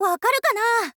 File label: "wakaru kana", info girl